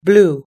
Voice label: "blue", us-english